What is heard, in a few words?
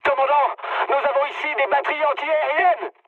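damaged aa, mood high, French; War Thunder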